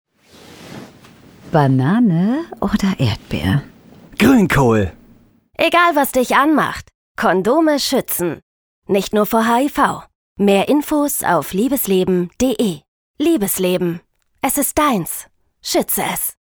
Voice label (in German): Radiospot; hiv-sti